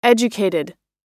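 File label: "educated", American English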